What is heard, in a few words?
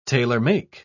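"tailor-make", British English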